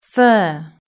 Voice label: "fur", British English